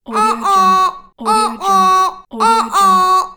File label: girl uh oh fail